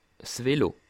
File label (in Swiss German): "velo", alsace